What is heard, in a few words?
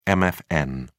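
"mfn", British English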